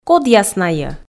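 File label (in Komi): "кодъяс найӧ", Komi, female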